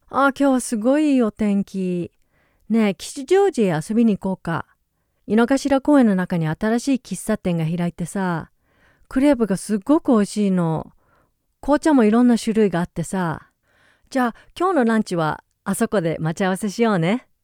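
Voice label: Japanese conversational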